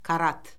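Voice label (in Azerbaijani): "karat", Azerbaijani; Orfoqrafiya Lüğəti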